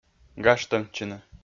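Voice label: "гажтӧмтчыны", Komi, male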